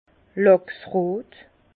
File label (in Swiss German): "lachsrot", Bas Rhin; vin; rose saumon